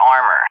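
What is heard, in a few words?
"armor", English, USA; Target; DCS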